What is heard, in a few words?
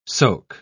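"soke", English, British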